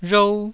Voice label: "rhou1", taiwanese

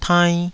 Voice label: "tainn2", taiwanese Syllables

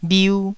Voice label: "bhiu1", taiwanese

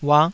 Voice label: "uah5", taiwanese

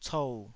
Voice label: "cou3", Taiwanese

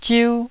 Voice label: "ziu1", taiwanese

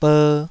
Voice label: "ber2", taiwanese

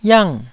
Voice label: "yiang4", taiwanese Syllables

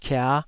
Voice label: "kia5", taiwanese syllables

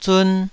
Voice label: "zun2", Taiwanese

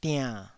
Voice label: "diann3", taiwanese syllable

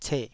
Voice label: "ceh3", taiwanese Syllables

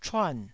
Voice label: "chuan4", taiwanese

